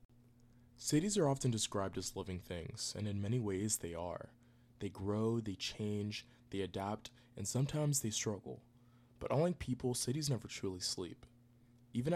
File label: documentary informational VO, audiobook